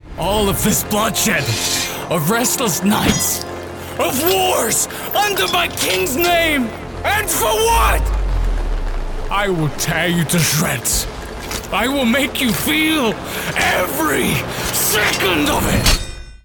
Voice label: Sworn Knight Battle, French Voice Actor